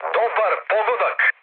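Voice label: Serbian bomb success mood med, speech; War Thunder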